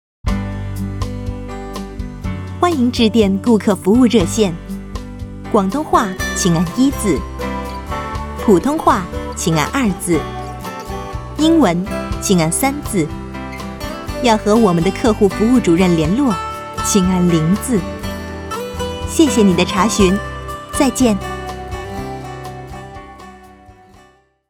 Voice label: Telephone helpline